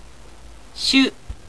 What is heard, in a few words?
"shu", japanese